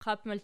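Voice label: "qap" plural, Archi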